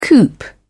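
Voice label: "coop", British English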